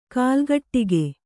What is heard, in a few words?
"ಕಾಲ್ಗಟ್ಟಿಗೆ", Kannada